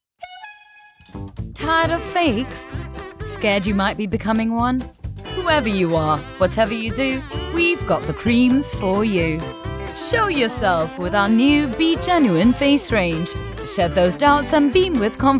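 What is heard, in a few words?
mock commercial sample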